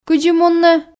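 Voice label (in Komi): кыдзи мунны, Komi, female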